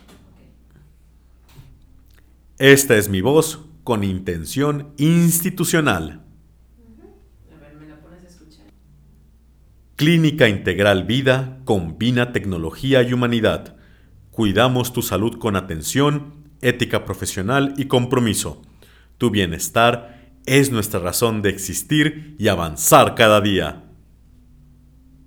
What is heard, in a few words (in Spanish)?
Institucional